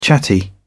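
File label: "chatty", British English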